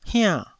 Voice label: "hiann4", Taiwanese syllable